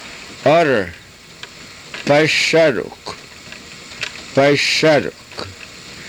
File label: "pay saruk", karuk